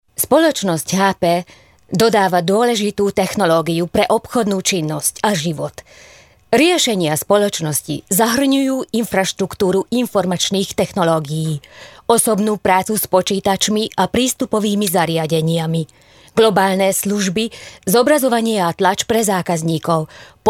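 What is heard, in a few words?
Slovak female voice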